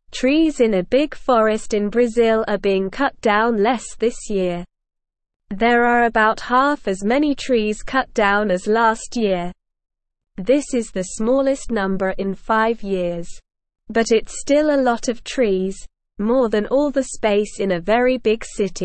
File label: English Beginner SLOW Reading; English Newsroom